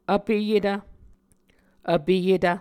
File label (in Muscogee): "vpeyetv", muscogee